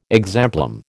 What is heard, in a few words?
"exemplum", American